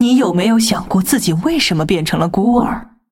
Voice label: taunt, zh; Camille

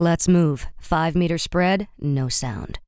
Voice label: Archer pissed

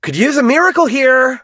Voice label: hurt vo

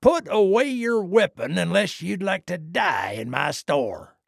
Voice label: trader male dislike greeting

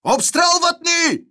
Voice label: neutral

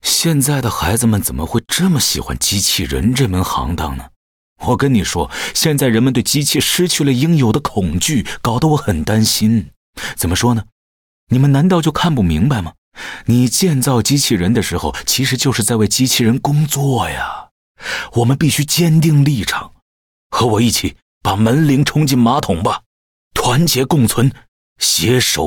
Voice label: Mandarin; 哈里森（双点校园）; 开场白

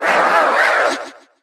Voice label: hurt evil; nita

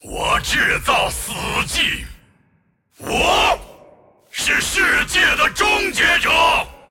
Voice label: move, zh

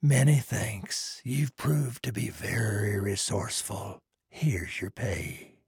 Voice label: trader male like quest complete

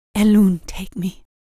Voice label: death, en

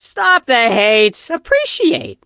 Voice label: hurt vo; chester

